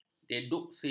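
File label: "deduksi", Malay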